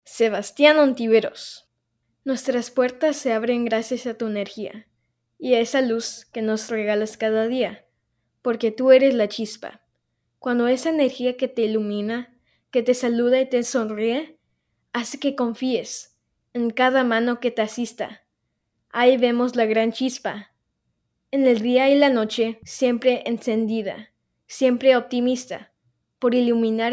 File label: SPANISH